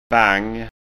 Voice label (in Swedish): "bang", skanska ord